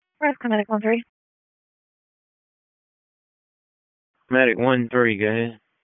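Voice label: EMS Dispatch, p25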